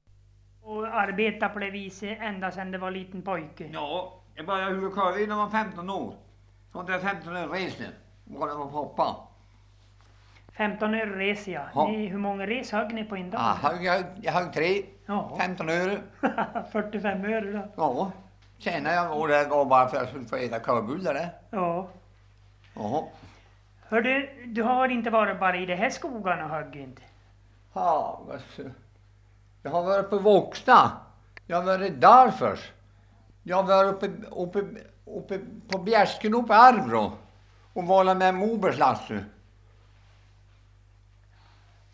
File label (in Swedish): dialekter; Folkminnen